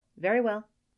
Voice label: girl "very well"; Inseminator